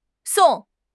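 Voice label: "song4", Mandarin Chinese; HSK2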